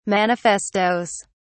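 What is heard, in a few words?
"manifestoes", British English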